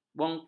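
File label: "bongkang", Malay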